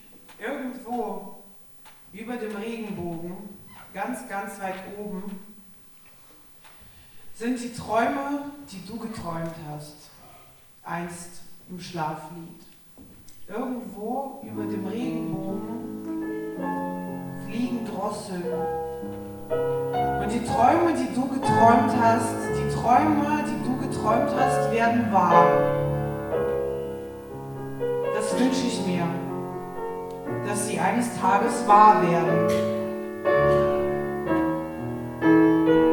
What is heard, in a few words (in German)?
Ansage